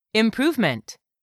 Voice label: "IMPROVEMENT", english; 3000 words